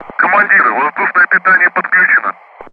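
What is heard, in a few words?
Speech Sound Russian Common Ground Crew Messages; DCS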